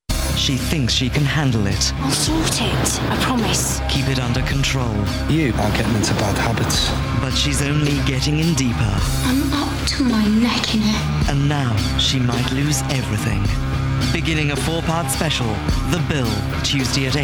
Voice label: promo; The Bill

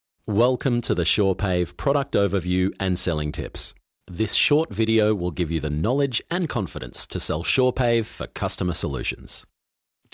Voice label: Instructional

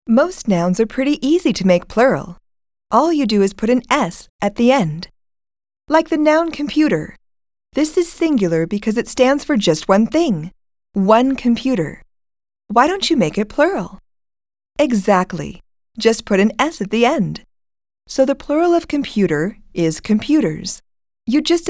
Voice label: Elearning

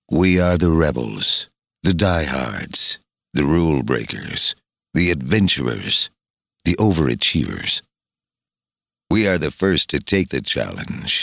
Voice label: Studio Quality Sample Dry

